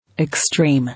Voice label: "Extreme", English

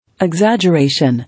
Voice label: "Exaggeration", English

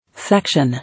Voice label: "Section", English

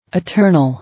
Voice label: "Eternal", English

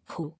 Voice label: "Who", English

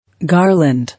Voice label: "Garland", English

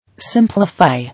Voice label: "Simplify", English

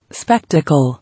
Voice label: "Spectacle", English

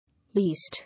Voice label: "Yeast", English

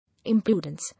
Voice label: "Impudence", English

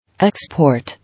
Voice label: "Export", English

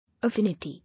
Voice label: "Affinity", English

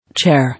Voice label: "Chair", English

